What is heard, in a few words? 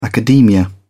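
"academia", English, British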